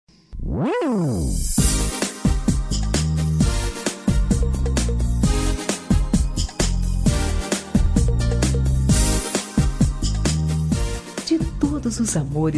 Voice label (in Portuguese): Romântica Foi Bom Te Conhecer